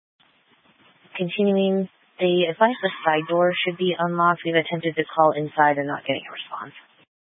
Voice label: Fire Dispatch, scanner